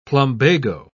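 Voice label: "plumbago", British English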